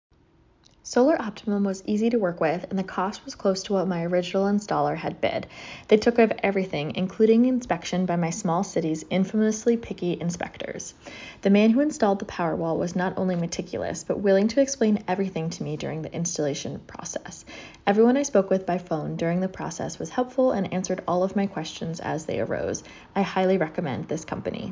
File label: testimonial recording